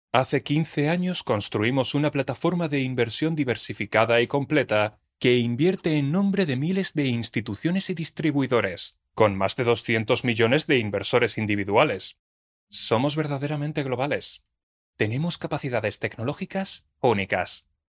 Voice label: locutor spanish voiceover

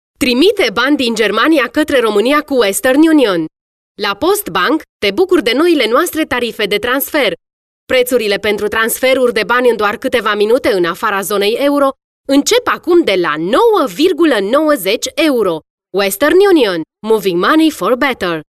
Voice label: locutora rumana romanian voiceover

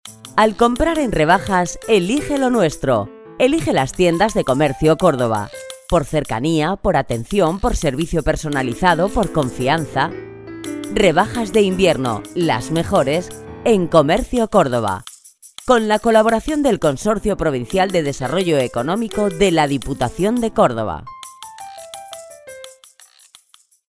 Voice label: locutora spanish female voiceover; Spanisch Sprecherin

